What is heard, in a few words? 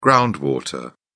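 "ground water", British English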